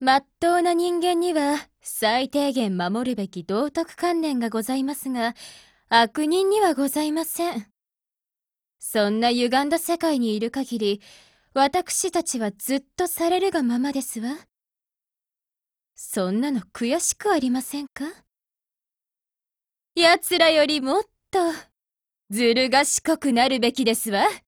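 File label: DIALOGUE Japanese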